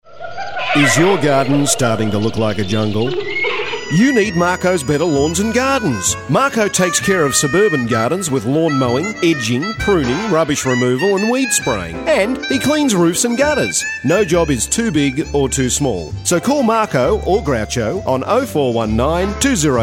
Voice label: Gardening Voice Over